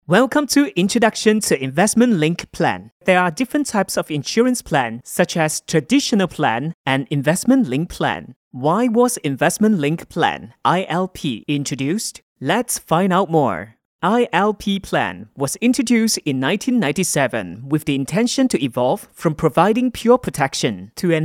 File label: English - Narration (Normal to Fast Speed)